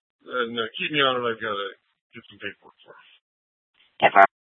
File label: Scottsdale PD Sth Dispatch, scanner